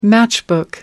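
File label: "matchbook", British English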